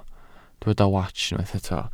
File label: "wats", Welsh